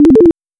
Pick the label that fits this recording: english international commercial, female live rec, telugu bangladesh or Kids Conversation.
Kids Conversation